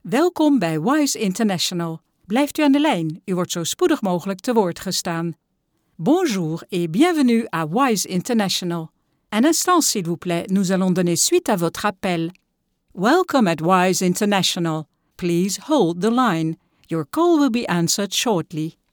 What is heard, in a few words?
ivr NL FR GB